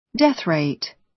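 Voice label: "death rate", American English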